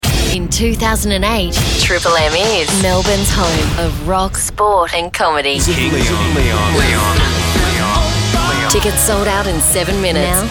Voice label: PROMOS